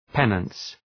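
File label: "penance", English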